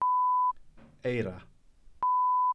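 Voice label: "eira", Welsh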